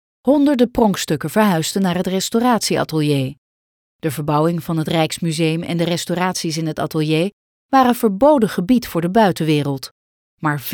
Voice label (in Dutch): museum documentaire